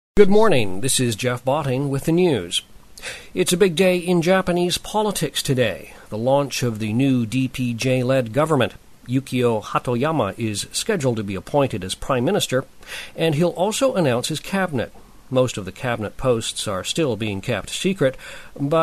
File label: USA, M